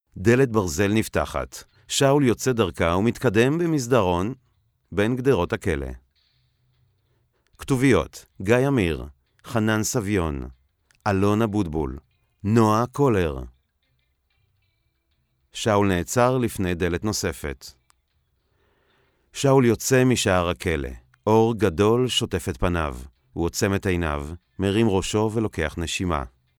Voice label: hebrew others

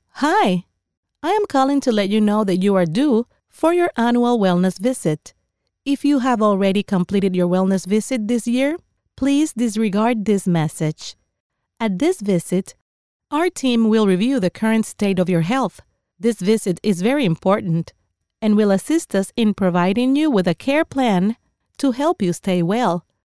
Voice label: english ivr

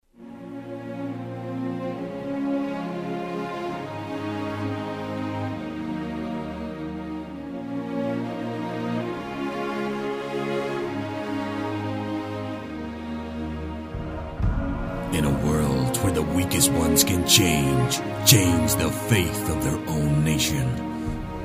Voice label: english scottish movie trailers

